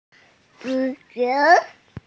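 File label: "zu2qiu2", Mandarin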